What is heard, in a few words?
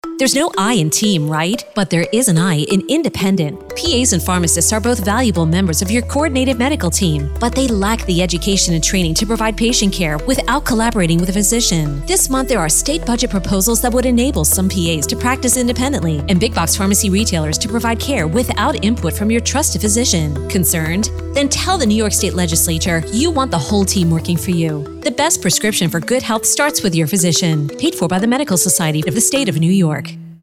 radio spot; advocacy update; mssny